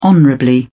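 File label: "honorably", British English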